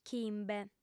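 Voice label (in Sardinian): "chimbe", female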